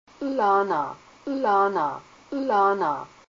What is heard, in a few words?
village, Haida language; 'láanaa